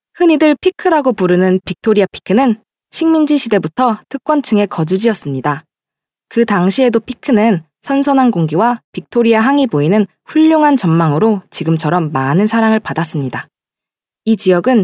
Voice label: Female Korean Other Projects